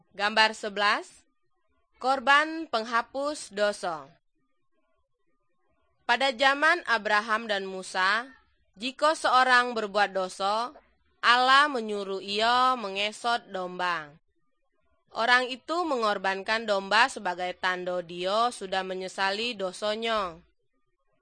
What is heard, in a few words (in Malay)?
good news malay jambi